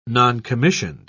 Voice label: "noncommissioned", en uk

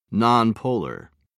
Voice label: "nonpolar", en uk

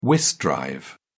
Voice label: "whist drive", uk

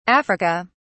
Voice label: "africa", uk

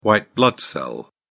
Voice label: "white blood cell", uk

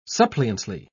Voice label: "suppliantly", en uk

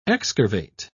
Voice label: excurvate, uk

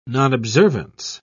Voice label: "nonobservance", en uk

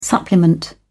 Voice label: "supplement", en uk

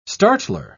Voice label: "startler", en uk

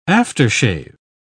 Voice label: "after shave", uk

